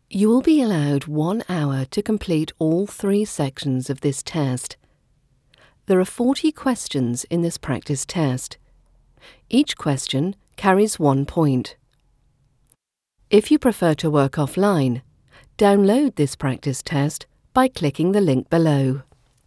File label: The Corporate Read; voiceover styles